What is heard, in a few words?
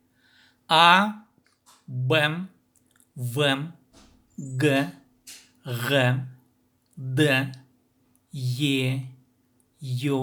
Khakas alphabet